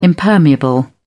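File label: "impermeable", British English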